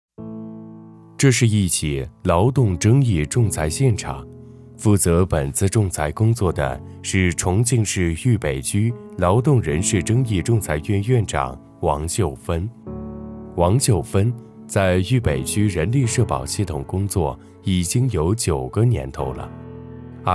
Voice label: 纪录片; 纪录片人物

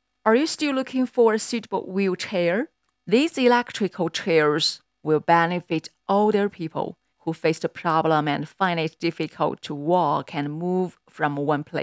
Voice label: 英文女配

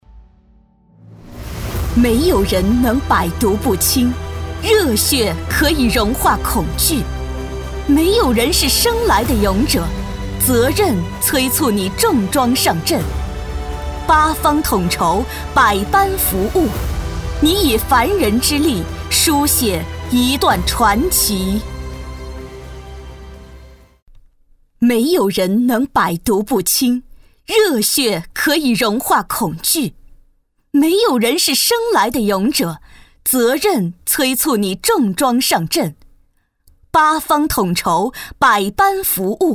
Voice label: 颁奖 有力 激情

